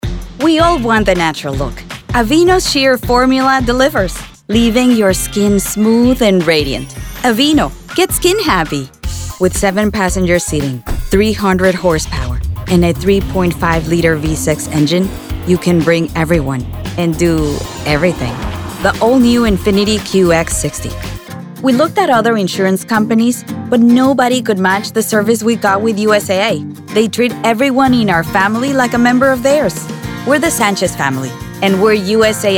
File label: English Commercial